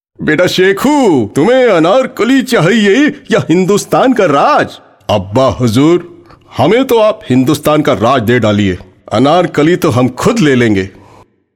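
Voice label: COMMERCIAL MIMICKERY MULTI CHARACTERS URDU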